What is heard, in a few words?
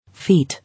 "Feat", English